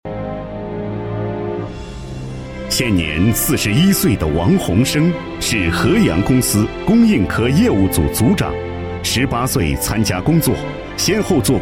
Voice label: 男 颁奖 模范人物, 国语男声配音, 浑厚

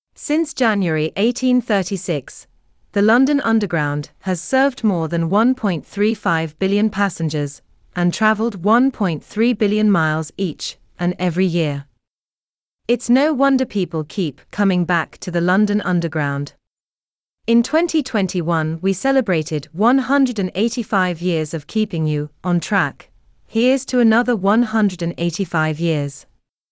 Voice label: 外籍英语女, 大气宣传

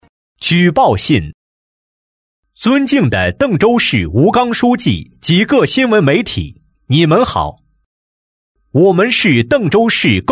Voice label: 男, 广播播报, 贪腐举报信

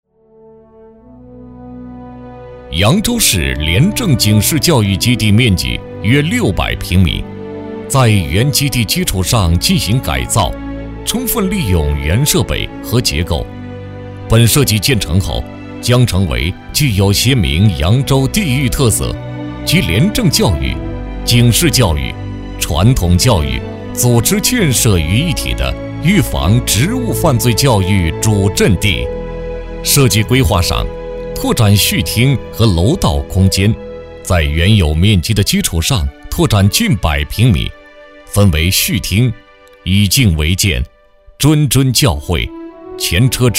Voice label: 男100-廉政-廉政警示教育基地 磁性 平铺, 国语男声配音

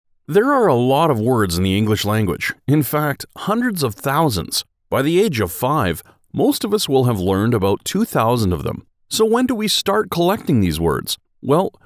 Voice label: 外籍男 eLearning Video

